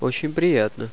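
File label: "nice to meet you", russian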